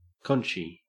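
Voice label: "conchy", Australian English; pronunciations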